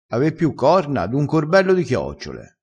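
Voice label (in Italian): "ave piu corna", dialettale crespinese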